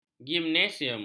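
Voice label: "gimnasium", Malay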